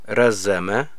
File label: it became long, amharic